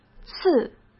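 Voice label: "刺", Mandarin Chinese; chinese-flashcards; hsk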